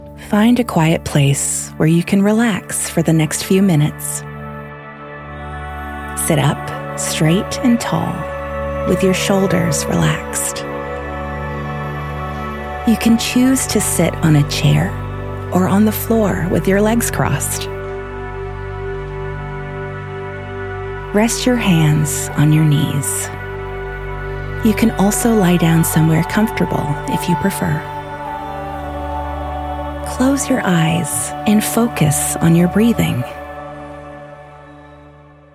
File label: meditation, en-NZ